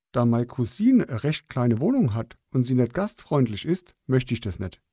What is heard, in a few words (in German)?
Hessisch Dataset Sample